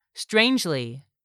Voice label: "strangely", English; 3000 words